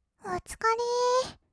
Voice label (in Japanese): 「おつかれー」, kawaii voice; 1200 kawaii voice!!; 色々なワンショット